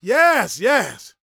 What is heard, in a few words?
SHOUTS, Gospel, PREACHER; ILIO; Vocal Planet